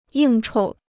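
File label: "应酬", Chinese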